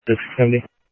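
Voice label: Sheriff West County, scanner audio trunk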